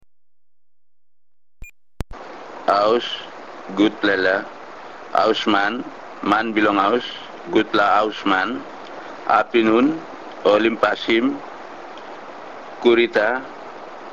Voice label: Tok Pisin; TokPisinTexte